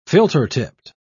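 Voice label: "filter-tipped", British English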